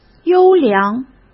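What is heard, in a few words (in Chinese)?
"优良", Mandarin Chinese; chinese-flashcards; hsk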